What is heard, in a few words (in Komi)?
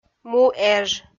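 "му эж", Komi, female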